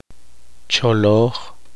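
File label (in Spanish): "conocimiento educación", Poqomchi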